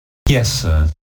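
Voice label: "yessir", English pronunciation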